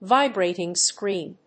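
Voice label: "VIBRATING SCREEN", English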